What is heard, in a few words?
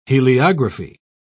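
"heliography", British English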